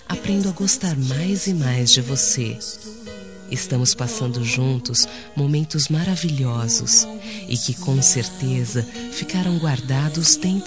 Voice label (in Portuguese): ROM COMO E BOM TER VOCE FEM